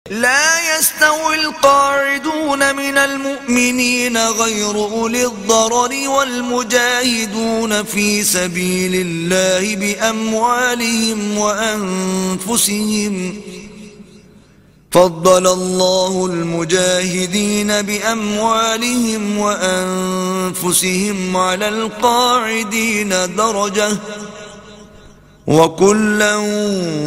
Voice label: Quran tilawat Recitation; An-Nisa; Learning word By word; Surah 4